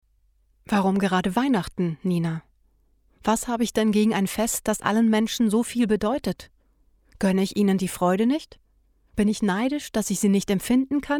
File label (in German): Hoerbuch